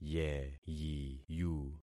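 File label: "yeyiyu", concatenated syllables; Affixing experiment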